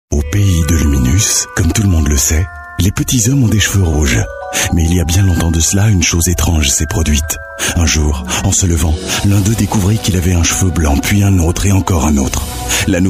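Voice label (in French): Belgian French, male, commercial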